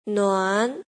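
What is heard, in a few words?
"nuan", pinyin, second tone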